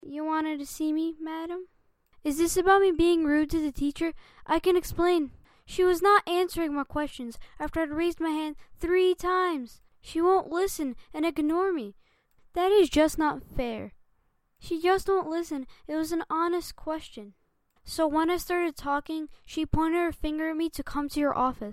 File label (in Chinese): 童声男 小学生角色